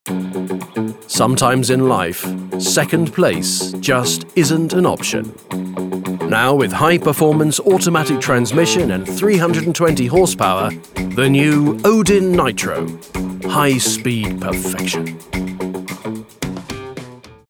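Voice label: British commercial; english-uk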